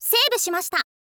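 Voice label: "save shimashita", Japanese, girl; voice; info girl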